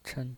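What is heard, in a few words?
"chen", Mandarin Chinese pinyin voice, neutral tone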